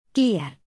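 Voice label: "clear", English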